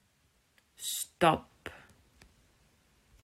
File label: "Stap", Dutch